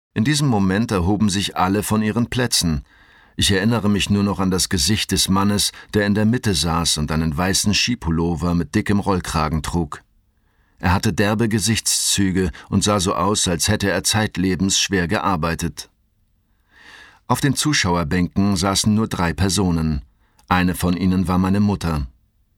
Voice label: Hoerbuch Verhandlung; NeunLeben

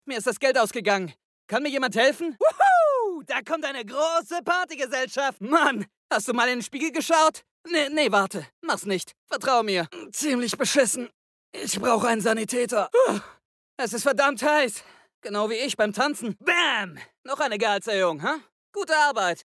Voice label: aufgedrehter junger mann game

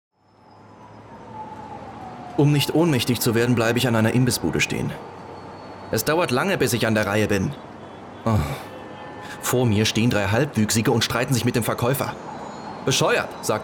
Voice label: Monolog Schnell

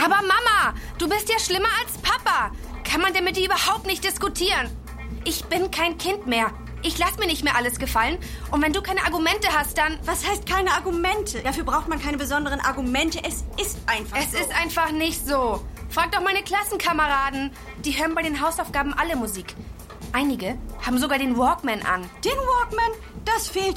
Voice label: hoerspiel; LOFT VOICES

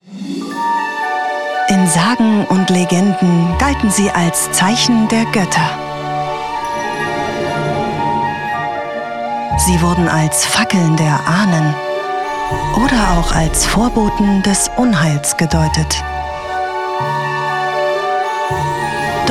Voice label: Doku Polarlichter

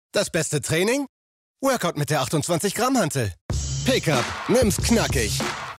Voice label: Hantel Spot

